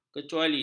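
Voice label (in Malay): "kecuali", Malay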